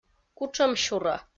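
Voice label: "кутшӧмсюро", Komi, female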